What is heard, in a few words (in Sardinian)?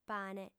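"pane", female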